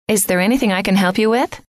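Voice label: is there anything I can help you with, female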